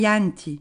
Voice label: "ghjenti", Gravona (sud)

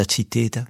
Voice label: "zitedda", Rocca (sud)

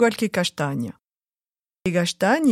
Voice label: "castagna", Moita Verde (nord)

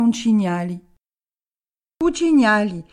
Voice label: "cignali", Gravona

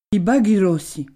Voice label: "bachi rossi", Gravona

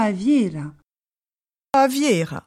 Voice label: "fiera", nord et sud

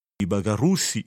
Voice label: "bachi rossi", Rocca (sud)

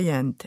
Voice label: "ghjente", Moita Verde (nord)

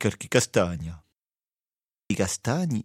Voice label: "castagna", Rocca (sud)

